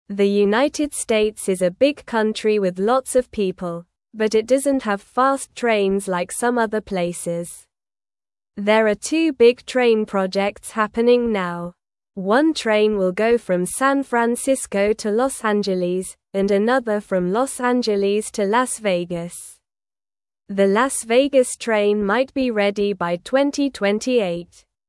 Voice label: English Newsroom Beginner SLOW Reading; America Needs Faster Trains for Better Travel